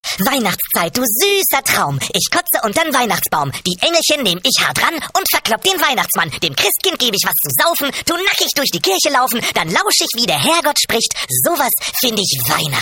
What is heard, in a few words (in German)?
X-mas Gedicht